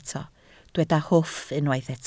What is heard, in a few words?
"hwff", Welsh